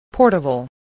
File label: "portable", English